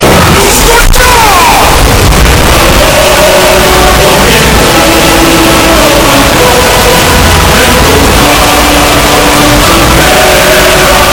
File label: fus ro dah loud